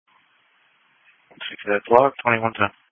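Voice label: Police Patrol (Dispatch)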